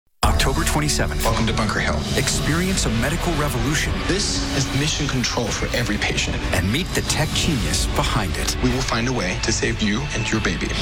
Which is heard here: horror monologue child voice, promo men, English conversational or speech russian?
promo men